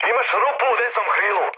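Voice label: Serbian right wing mood med; speech; War Thunder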